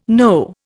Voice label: "know", Indian

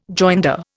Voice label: "joinder", Indian

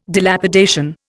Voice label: "Dilapidation", Indian

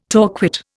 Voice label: "torquate", Indian

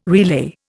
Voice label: "relay", Indian

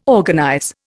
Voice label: "organize", Indian

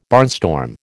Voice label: "barnstorm", American

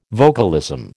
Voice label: "vocalism", American

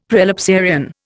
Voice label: "prelapsarian", Indian; Dictionary

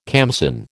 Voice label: "khamsin", American

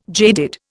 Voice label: "jaded", Indian

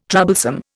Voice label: "troublesome", Indian

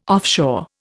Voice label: "offshore", Indian

